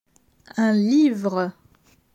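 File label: "Un livre", French; vocabulaire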